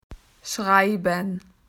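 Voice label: "to write", Australian English; PictureDictionary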